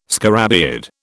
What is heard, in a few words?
"scarabaeid", British